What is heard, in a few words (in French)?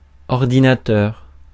"ordinateur", francais; Mots francais phonemes